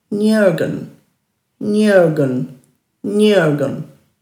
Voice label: "njurgun", Dhurga

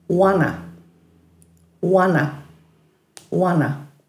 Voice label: "wana-", Dhurga; dulagaal

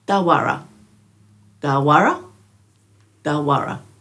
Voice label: "dhawara", Dhurga

